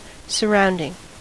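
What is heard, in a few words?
"surrounding", US English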